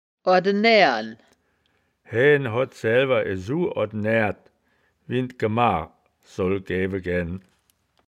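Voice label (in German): "ordenaeaeren", Wittlicher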